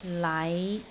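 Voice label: "lai5", Cantonese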